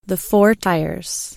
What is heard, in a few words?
four tires man